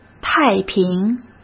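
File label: "太平" Mandarin Chinese; chinese-flashcards; hsk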